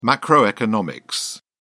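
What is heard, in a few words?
"macroeconomic", British English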